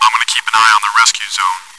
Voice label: chitchat, bot, voice commands; svencoop

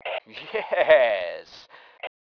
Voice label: "YES", male

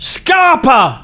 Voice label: Run, male, voice commands; svencoop

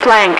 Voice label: "flank", radio, female

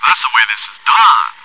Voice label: cheer; svencoop

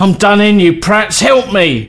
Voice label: Dying, male, voice commands; svencoop

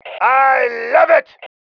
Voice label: AWESOME, MALE

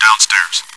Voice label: chitchat, bot, voice commands; svencoop

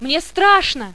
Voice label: fear; svencoop; paranoia; medic

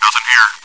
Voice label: chitchat, bot, voice commands; svencoop

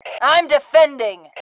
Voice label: iamdefending, FEM

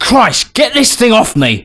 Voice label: Stop, male; svencoop

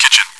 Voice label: chitchat; svencoop

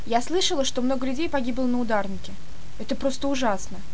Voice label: monologue; svencoop; paranoia

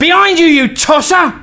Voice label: Look Out, male; svencoop

